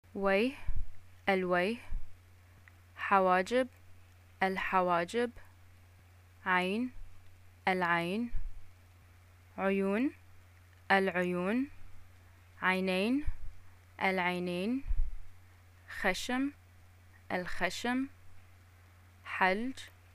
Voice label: face parts, arabic

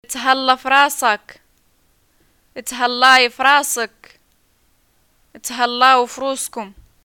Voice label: "greeting farewell", arabic

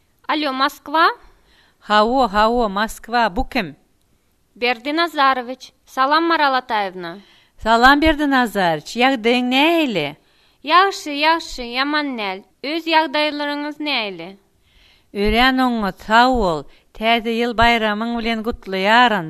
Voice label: phone call to Moscow, turkmen

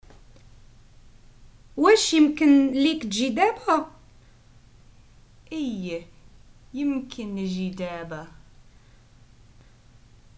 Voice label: prompts, Moroccan Arabic

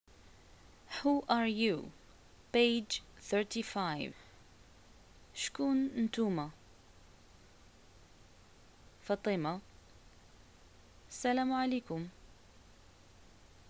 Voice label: dialogue, Arabic, North African, Moroccan

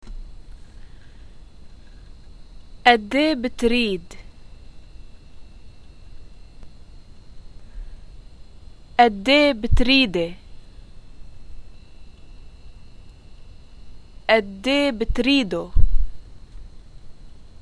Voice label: money how much do i owe you, arabic levantine

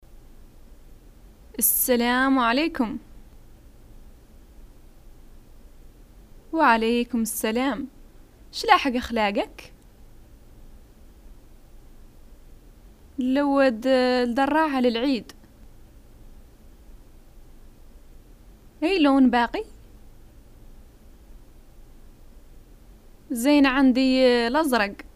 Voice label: dialogues, arabic, north african, hassaniya; shopping

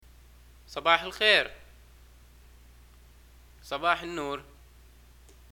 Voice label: greeting morning, arabic

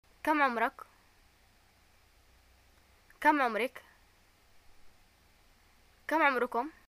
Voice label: how old r u, arabic, ye

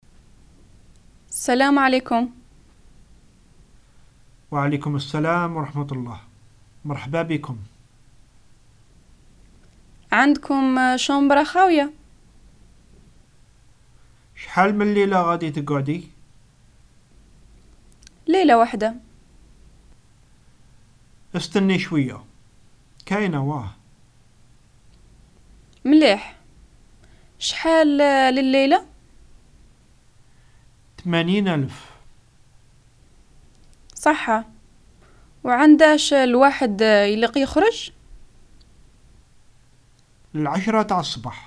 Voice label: hotel, arabic north african algerian dialogues

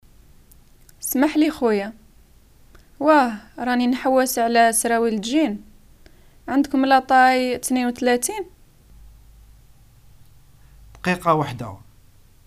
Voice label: dialogues, Algerian Arabic; shopping

